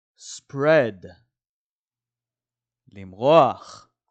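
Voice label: "spread" English, "למרוח" Hebrew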